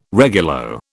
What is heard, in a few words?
"regulo", British